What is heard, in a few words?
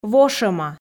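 "вошӧма", Komi, female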